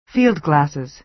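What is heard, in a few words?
"field glasses" American English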